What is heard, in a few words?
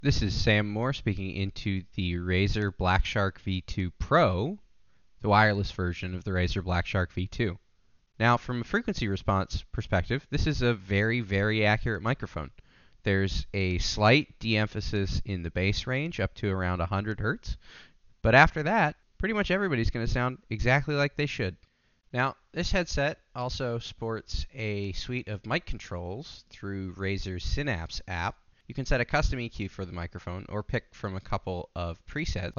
mic sample; Razer BlackShark V2 Pro